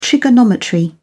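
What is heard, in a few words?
"trigonometry", British English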